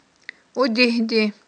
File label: "удигьди", Aghul